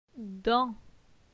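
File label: "Dan", French; phonetique; la syllabe an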